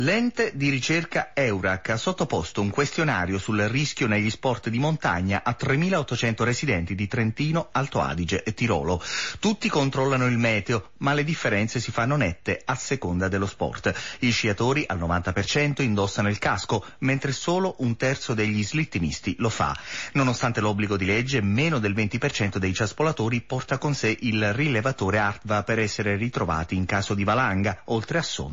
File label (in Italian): Radio; Rai Südtirol; Questionario sul rischio negli sport di montagna